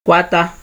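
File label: "quata", Nigerian Pidgin, male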